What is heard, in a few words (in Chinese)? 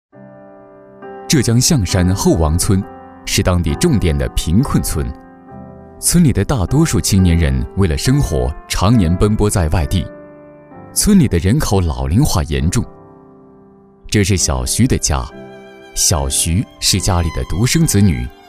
节目旁白 农村介绍